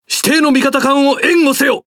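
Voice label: Speech Quick Commands Ally Ship, Japanese; World Of Warships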